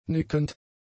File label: "nickend", German; adjectives